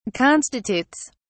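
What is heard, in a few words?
"constitutes", English, British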